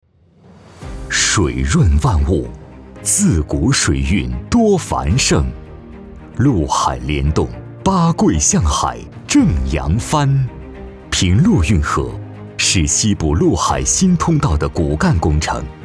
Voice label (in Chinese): 男 政府 大气 稳重; 平陆运河